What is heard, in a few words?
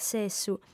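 "sessu", lingua sarda, female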